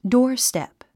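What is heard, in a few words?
"doorstep", English; 発音 dɔ́ːrstèp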